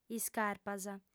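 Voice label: "iscarpas", female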